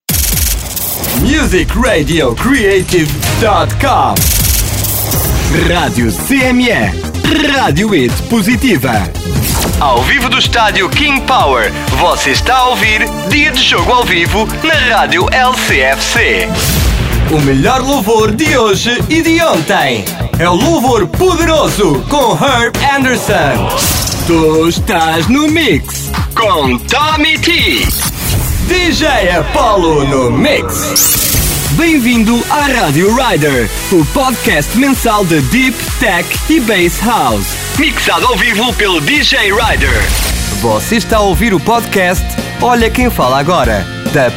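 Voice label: Radio Portuguese English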